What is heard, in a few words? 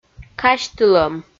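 "казьтылӧм", Komi, female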